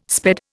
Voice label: "sped", Indian